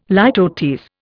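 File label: "litotes", Indian English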